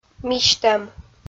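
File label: "мисьтӧм" Komi, female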